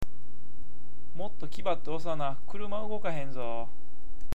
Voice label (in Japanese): "kibaru", awaji ben